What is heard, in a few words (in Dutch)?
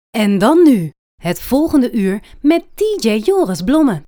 Radio jingles En dan nu